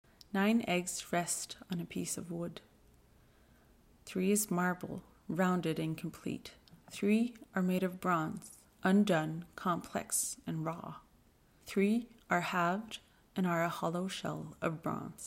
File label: English; Nuuk Art Museum; audio-guide